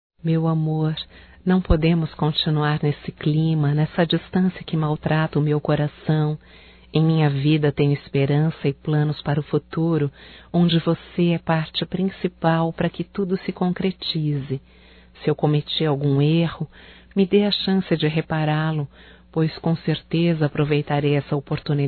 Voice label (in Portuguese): ROM ESTAO SEPARADOS (F), Telemensagens Românticas fem